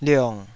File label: "liong3", Taiwanese